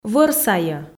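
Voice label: "вӧр сайӧ", Komi, female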